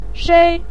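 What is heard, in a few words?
"shei", Mandarin Chinese, neutral tone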